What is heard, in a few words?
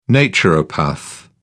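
"naturopath", British English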